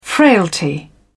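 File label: "frailty", English, British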